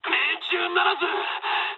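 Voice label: bomb failure mood med, speech japanese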